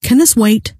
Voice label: cant this wait, scientist female